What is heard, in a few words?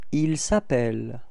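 "appeller il", French; frans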